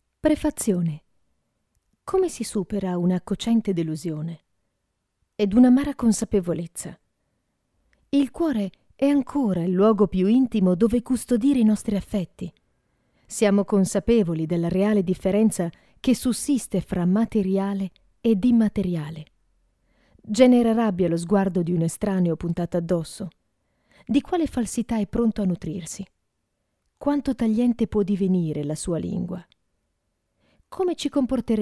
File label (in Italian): audiolibro